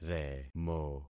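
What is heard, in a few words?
"remo", concatenated syllables; Affixing experiment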